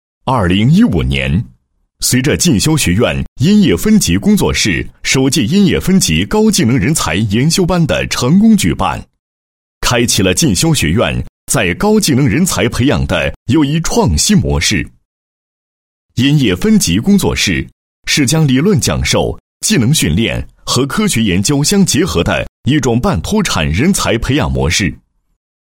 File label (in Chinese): 男 纪实, 配音; 烟叶分级工作室纪实